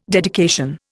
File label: "dedication", Indian